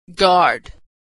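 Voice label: "guard", American English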